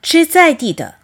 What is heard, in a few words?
"chizaidide", eat local food, Chinese; 吃在地的; Earth Day